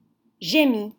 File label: "Gémit", French